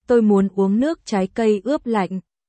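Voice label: Vietnamese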